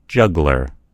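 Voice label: "juggler", American English; gcompris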